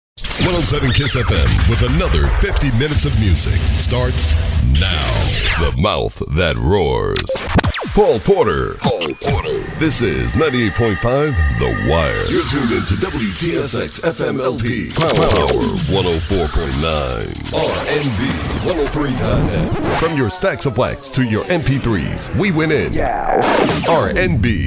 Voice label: Radio Imaging